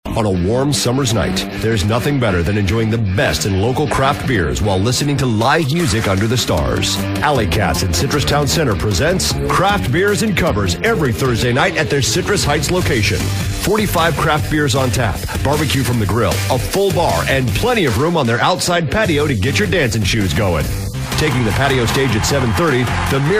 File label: radio promo; alley katz